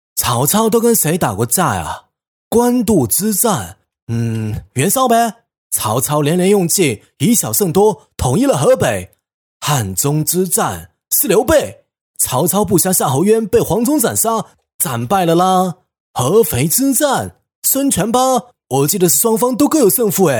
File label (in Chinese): 男 台湾腔; 曹操都跟谁